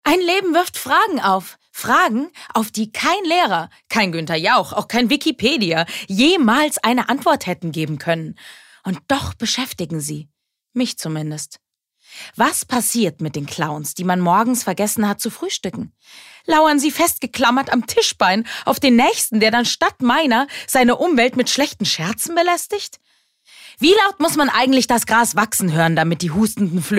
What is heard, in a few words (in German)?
Schauspiel (Dynamisch)